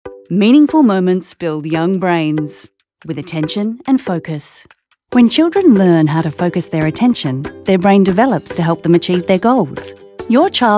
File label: Corporate